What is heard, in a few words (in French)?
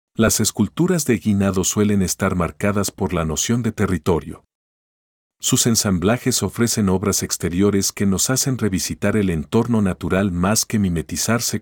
espagnol